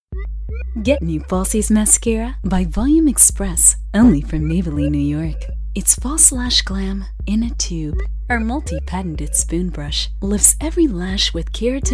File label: Commercial Variety